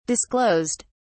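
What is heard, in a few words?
"disclosed", English, British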